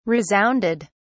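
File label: "resounded", British English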